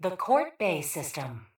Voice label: PA System; Chort Bay